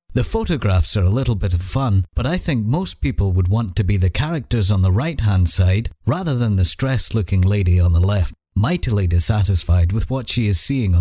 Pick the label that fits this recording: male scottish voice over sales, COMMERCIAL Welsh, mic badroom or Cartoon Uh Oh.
male scottish voice over sales